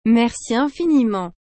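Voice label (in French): "Merci infiniment", French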